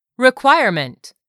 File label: "REQUIREMENT", english; 3000 words